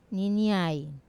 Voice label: "niniai", nibong palm, Wamesa